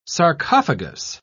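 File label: "sarcophagous", British English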